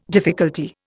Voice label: "difficulty", Indian

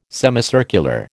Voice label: "semicircular", American

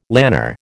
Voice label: "lanner", American

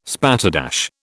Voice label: "spatterdash", British

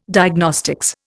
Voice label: "Diagnostics", Indian

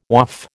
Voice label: "Waaf", American

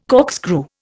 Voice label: "corkscrew", Indian

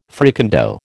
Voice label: "fricandeau", American

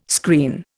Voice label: "screen", Indian

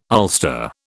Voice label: "ulster", British

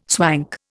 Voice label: "swank", Indian

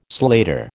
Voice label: "slater", American

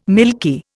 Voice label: "milky", Indian

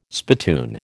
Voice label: "spittoon", American

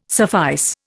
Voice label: "suffice", Indian

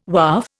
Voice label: "Waaf", Indian

